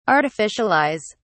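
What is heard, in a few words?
"artificialize", English, British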